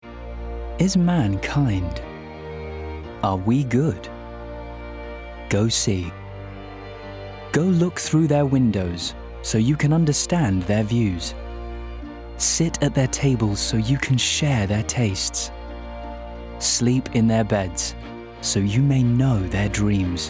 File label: commercials